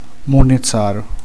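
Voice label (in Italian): "spazzino", Italian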